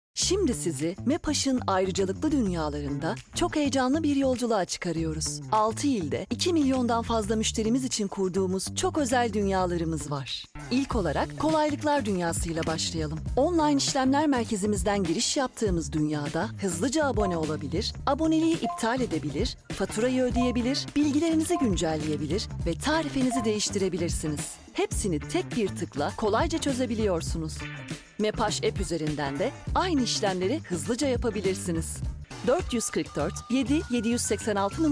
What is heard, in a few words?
turkish narration; Turkey; Türkisch